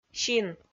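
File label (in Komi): "син", Komi, female; kpv audio dict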